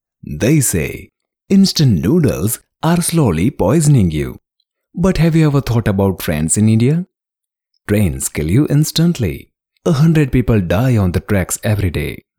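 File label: English indian accent, male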